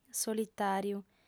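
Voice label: "solitàriu", female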